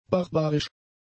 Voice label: "barbarisch", German; adjectives

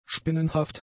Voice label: "spinnenhaft", german; adjectives

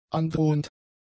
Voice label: "androhend", German; adjectives

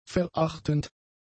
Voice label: "verachtend", German; adjectives

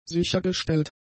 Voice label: "sichergestellt", German; adjectives

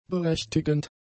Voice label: "berechtigend", German; adjectives

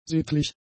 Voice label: "sittlich", german; adjectives

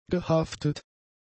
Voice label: "gehaftet", german; adjectives

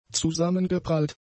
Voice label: "zusammengeprallt", German; adjectives

